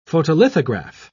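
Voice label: "photolithograph", British English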